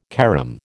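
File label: "carom", American English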